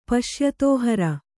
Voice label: "ಪಶ್ಯತೋಹರ", Kannada

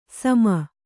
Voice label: "ಸುಮ", Kannada

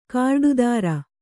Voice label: "ಕಾರ್ಡುದಾರ", Kannada

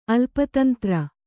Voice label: "ಅಲ್ಪತಂತ್ರ", Kannada

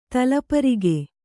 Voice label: "ತಲಪರಿಗೆ", Kannada

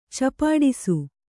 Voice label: "ಚಪಾಡಿಸು", kannada

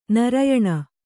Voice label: "ನಾರಾಯಣ", Kannada

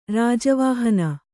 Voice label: "ರಾಜವಾಹನ", Kannada

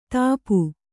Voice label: "ಧೂಪ", Kannada

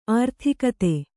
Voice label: "ಅರ್ಥಗತಿ", Kannada

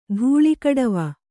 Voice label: "ಧೂಳಿಗಡವ", Kannada

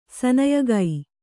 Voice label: "ಸನಯಗೈ", Kannada; saneyagai; (Verb)

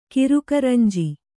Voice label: "ಕಿರುಕರಂಜಿ", Kannada

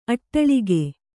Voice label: "ಅಟ್ಟಾಲಕ", Kannada

